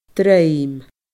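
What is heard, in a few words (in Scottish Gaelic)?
"druim", Scottish Gaelic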